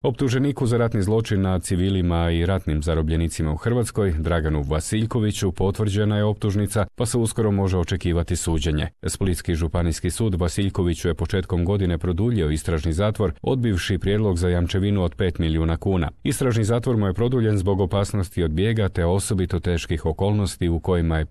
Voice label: croatian; war crimes indictment confirmed for dragan vasiljkovic

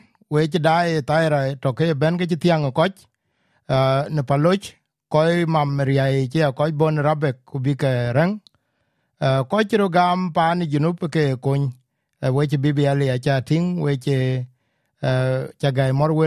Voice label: dinka; ‘Kɔc ke Junub tɔ̈ në Kartum atɔ̈ keke cë mam’; Tɔŋ loi rɔt panë Thudän atɔ̈ ke cë juïc apɛi waan në riääkic.; SBS Dinka

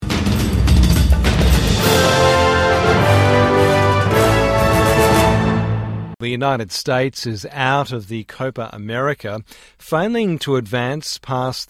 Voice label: english; The United States is out of the Copa America; SBS News

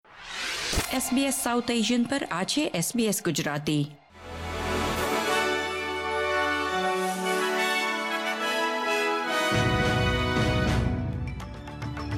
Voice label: gujarati news bulletin; SBS Gujarati News Bulletin 3 September 2024

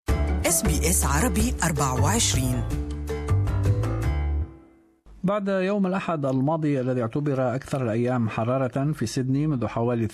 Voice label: arabic; SBS Arabic; Sydney hit by fierce lightning storm

